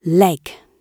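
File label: "leg" spoken, British English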